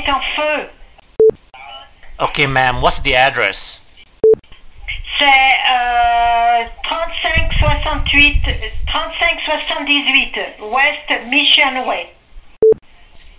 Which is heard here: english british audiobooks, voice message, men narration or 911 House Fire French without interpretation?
911 House Fire French without interpretation